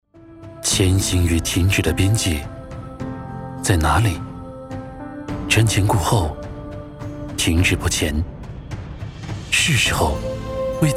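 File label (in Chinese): 广告低沉; 逐梦川西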